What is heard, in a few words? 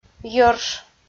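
"йӧрш", Komi, female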